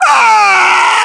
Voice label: death, voiceovers english

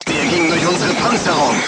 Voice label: voice message player tank hit, crew tanks german

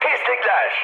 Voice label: enemy detected, mood high, speech tur

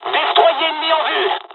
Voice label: dialog, dialogs french; War Thunder; midway

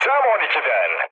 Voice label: bomb success, mood low, speech tur; War Thunder

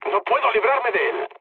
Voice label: aircraft damaged, mood low, speech; War Thunder

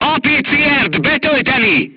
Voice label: voice message load APCR, hungarian

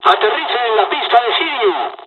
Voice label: second wave land, dialogs spanish; War Thunder

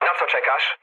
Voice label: attack position mood low, speech pol; War Thunder

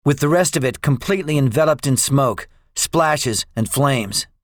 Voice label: debriefing, english; War Thunder

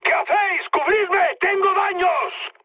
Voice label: aircraft damaged, mood high, speech; War Thunder

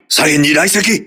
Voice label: voice message torpedo left, crew ships japanese

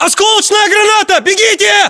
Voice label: inform incoming grenade, battlechatter, ru; localized english

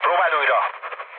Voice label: bomb failure, speech hun; War Thunder

